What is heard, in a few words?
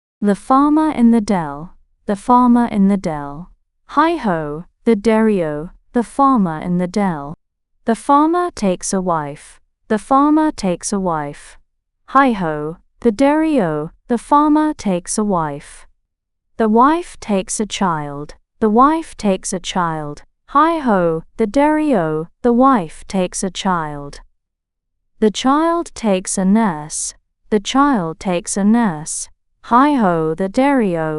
fem brit; The Farmer in the Dell